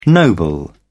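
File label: "noble", British English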